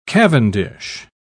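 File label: "cavendish", British English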